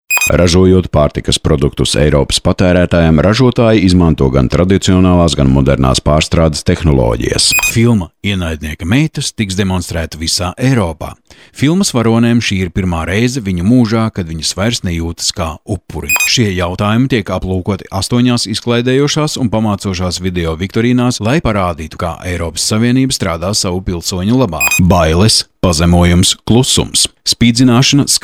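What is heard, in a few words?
Latvian male commercial; voice demos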